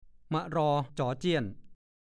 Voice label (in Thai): "ม่ะรอจ๋อเจี๋ยน", ภาษาโคราช; ป่วยกระเสาะกระแสะ